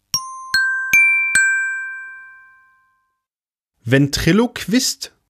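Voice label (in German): "Ventriloquist", German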